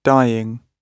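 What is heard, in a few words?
"dying", British English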